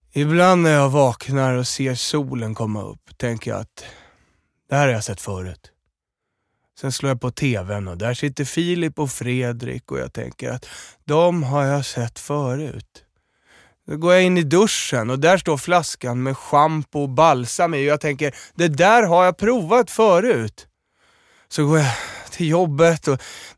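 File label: Swedish male karaktär; character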